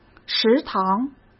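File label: "食堂", Mandarin Chinese; hsk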